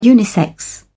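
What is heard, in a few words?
"unisex", British English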